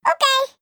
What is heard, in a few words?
elf okay; christmas